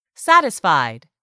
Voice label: "satisfied", english; 3000 words

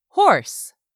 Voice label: "horse", English; 3000 words

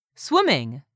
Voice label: "swimming", English; 3000 words

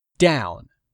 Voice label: "down", English; 3000 words

